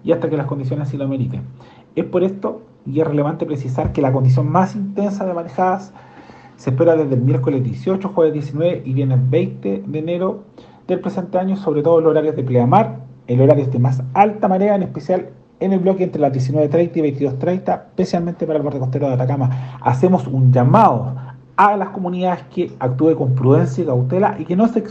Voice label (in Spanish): podcast alerta